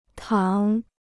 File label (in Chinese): "躺", Chinese